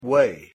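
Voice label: pronunciation "way", English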